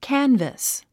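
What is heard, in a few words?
"canvass", English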